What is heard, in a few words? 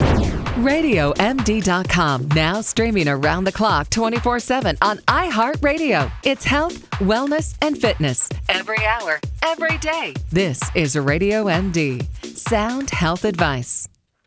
Promo; RadioMD Production